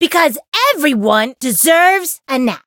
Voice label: variable chant; soira